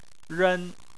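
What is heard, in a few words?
"ren", Chinese, tone 1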